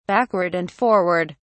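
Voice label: "backward and forward", British English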